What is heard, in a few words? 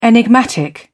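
"enigmatic", British English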